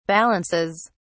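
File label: "balances", British English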